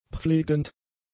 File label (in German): "pflegend", German; adjectives